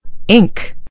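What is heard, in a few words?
"ink", American English; gcompris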